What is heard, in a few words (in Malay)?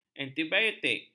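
"antibiotik", Malay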